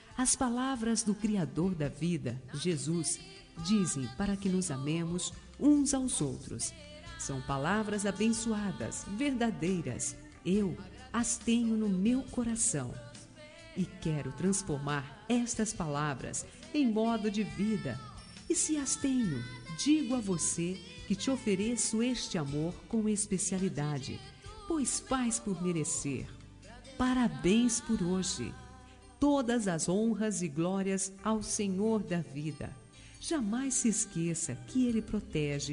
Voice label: Aniversário de Irmã, Voz Feminina, gospel; Telemensagens para todas as ocasiões

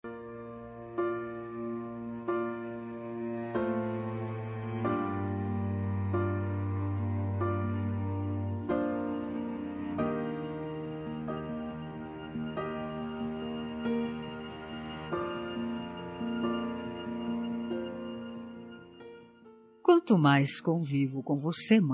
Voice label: mae fem, dia das mulheres para mae voz feminina; linda

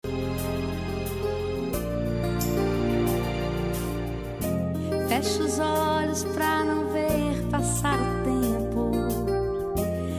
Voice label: aniver esposo gospel

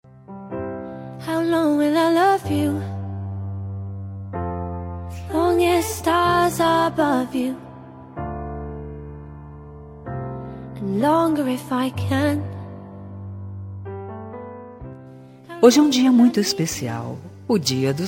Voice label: paquera fem, Voz Feminina; Dia dos Namorados; Conquista

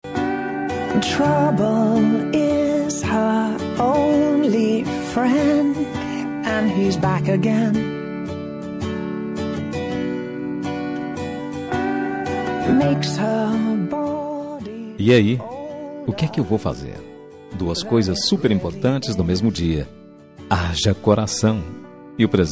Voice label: aniv masc, voz masculina; dia dos namorados aniversario

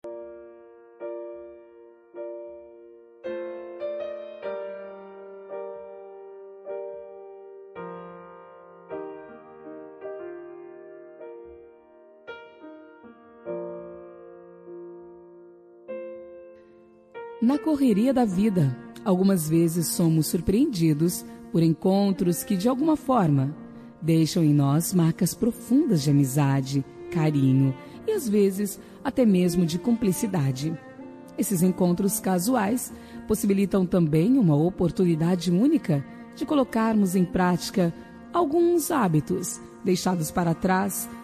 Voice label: cunhada feminina, Aniversário de Cunhada – Voz Feminina; Telemensagens para todas as ocasiões

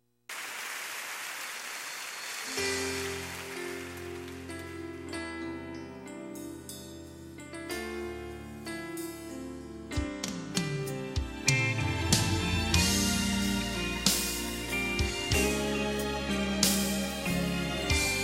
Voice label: mãe gospel, Aniversário de Mãe Gospel – Voz Feminina; Telemensagens para todas as ocasiões

